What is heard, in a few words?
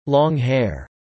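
"long hair" spoken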